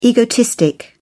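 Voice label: "egotistic", British English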